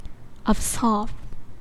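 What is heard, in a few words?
"absurd", Chinese female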